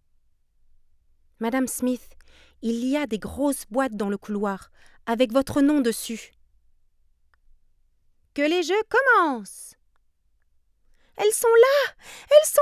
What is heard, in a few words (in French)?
robots fr; marmite